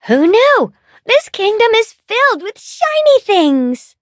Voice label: touch, en; cookierun